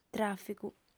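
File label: "tràficu", female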